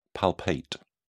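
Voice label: "palpate", British English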